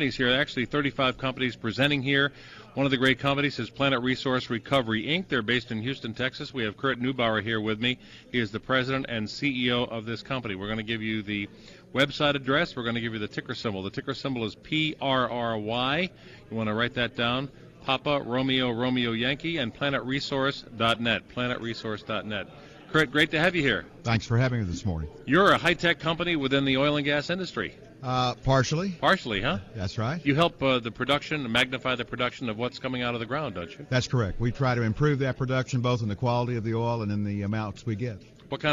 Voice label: SFX Financial Show American Scene Radio; PRRI